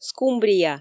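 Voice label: "скумбрия", Komi, female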